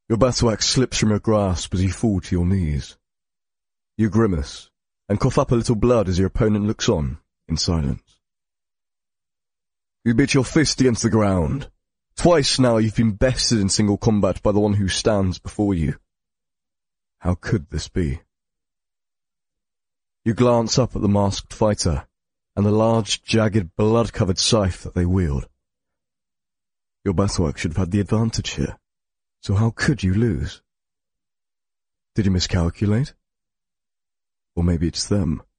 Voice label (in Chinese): 英式男声